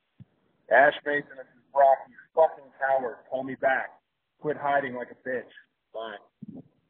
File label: voicemail